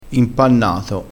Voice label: "impannato", crespinese

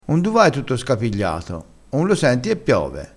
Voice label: "scapigliato", vocabolario dialettale, crespinese

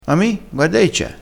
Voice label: "mi", crespinese

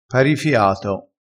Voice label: "parifiato", crespinese

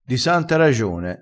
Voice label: "di santa", crespinese

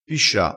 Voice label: "piscia", vocabolario dialettale

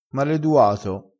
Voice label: "maleduato", crespinese

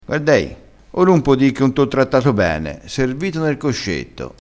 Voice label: "po", crespinese

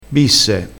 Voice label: "bisse", vocabolario dialettale crespinese

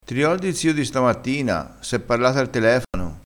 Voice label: "rimbecillito", crespinese

